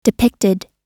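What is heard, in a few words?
"depicted", US English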